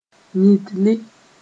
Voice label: "yĩtli", Mooré